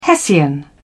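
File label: "hessian", English, British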